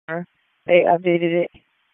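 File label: Raleigh Police Southwest District Dispatch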